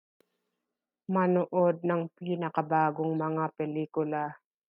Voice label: Tagalog